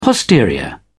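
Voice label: "posterior", British English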